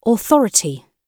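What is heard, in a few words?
"authority" British English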